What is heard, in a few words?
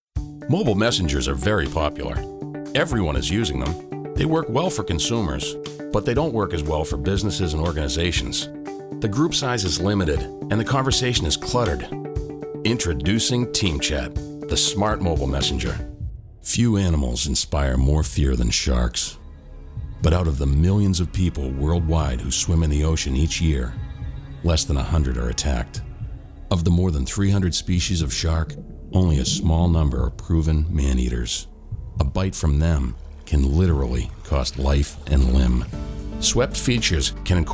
narration